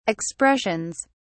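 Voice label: "expressions", British English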